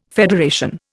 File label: "federation", Indian